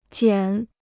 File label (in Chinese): "剪", Chinese